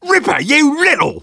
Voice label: Sniper positive vocalization